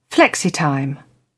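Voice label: "flexitime", British English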